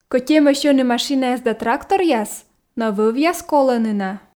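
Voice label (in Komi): кӧть эмӧсь ӧні машинаяс да тракторъяс но вӧвъяс колӧны на, Komi, female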